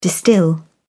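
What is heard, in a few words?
"distil", British English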